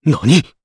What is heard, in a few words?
Vox, jp; Clause ice; kingsraid